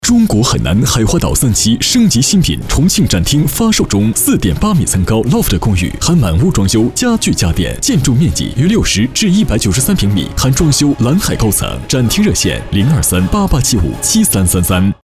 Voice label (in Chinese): 地产 男 大气浑厚; 海南; 海南海花岛